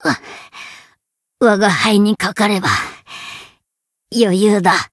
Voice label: win barely, jp